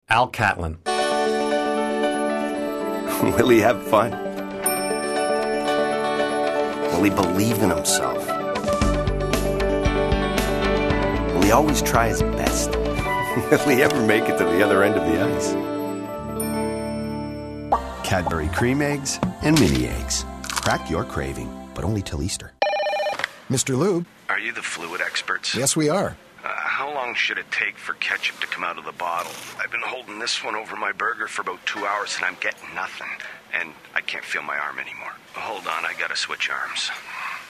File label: commercial male